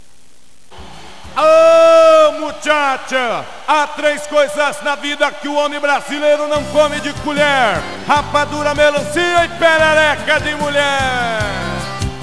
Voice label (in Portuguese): Versos narrados, Rodeios; Esportes; perereca